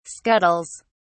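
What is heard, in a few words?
"scuttles", English, British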